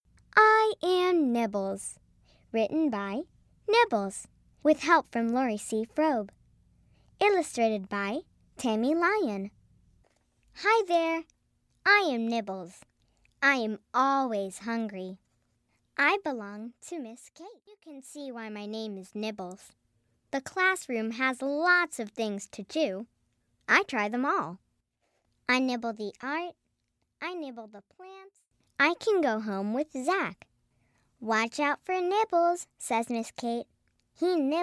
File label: Audio Book Sample